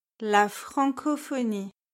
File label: "la francophonie", French